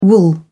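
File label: "wool", British English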